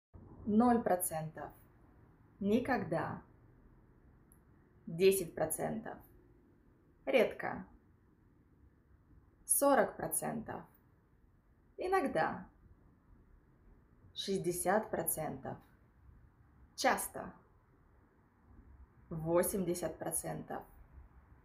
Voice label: Russian, زبان روسی

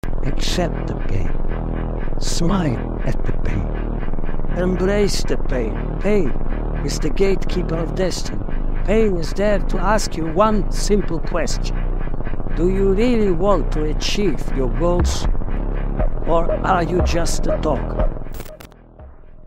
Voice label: sports motivational; جملات انگیزشی ورزشی خارجی

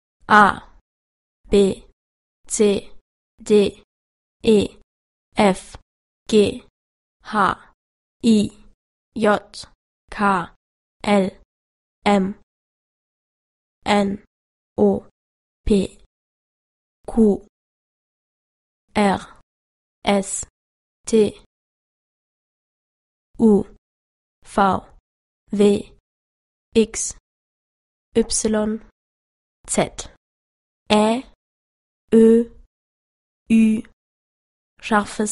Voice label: german language

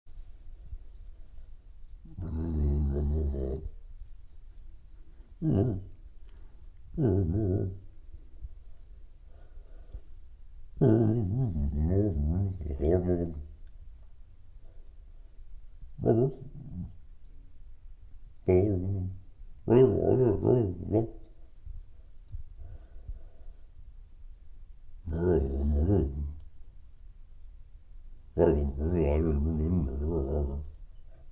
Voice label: mumbling

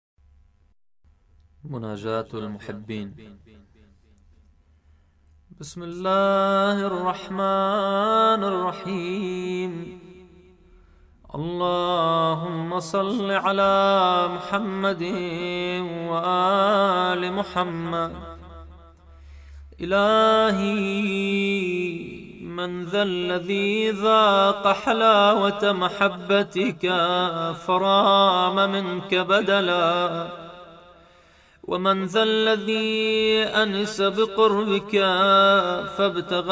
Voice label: monajat al mohebbin, مناجات محبین

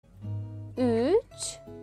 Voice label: three in Turkish

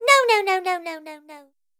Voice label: cartoon girl saying no no no

